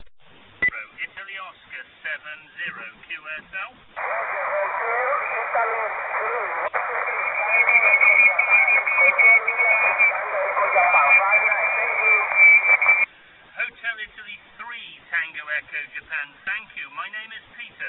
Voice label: qso